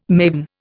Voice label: "maven", Indian